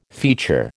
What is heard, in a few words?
"feature", American